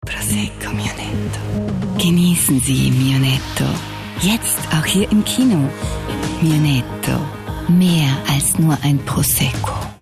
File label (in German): Sanft; Mionetto